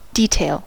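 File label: "detail", US English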